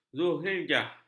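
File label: "zulhijah", Malay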